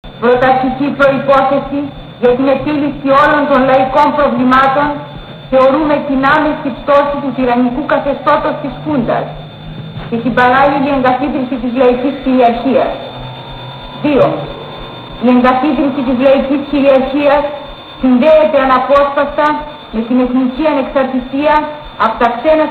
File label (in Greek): Το πρώτο ραδιοφωνικό μήνυμα των φοιτητών